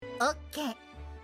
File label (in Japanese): OKお姉さんボイス